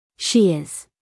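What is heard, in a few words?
"She is", American English female; everyone-can-use-english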